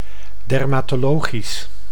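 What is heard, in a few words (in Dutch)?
"dermatologisch", Dutch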